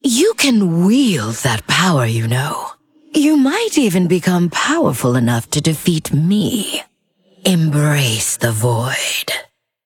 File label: Female Undead; Mission6